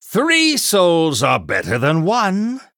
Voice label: Male Human Triple